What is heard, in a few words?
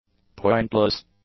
"pointless", english; adjectives